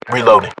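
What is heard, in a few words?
British inform reloading generic; battlechatter; localized english; uk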